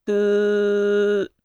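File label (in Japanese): "ふ", Japanese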